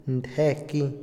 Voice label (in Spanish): serrucho, Otomí, hombre; INALI